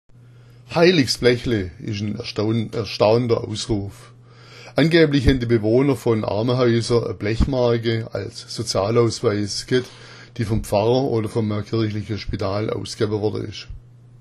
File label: heiligsblechle, schwaebisch